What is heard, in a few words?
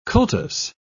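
"cultus", British English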